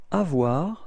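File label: "avoir", French; frans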